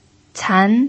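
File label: "can", pinyin, second tone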